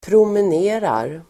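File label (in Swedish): "promenerar", Swedish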